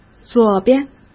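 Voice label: "左边", Mandarin Chinese; hsk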